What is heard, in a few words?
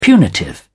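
"punitive", British English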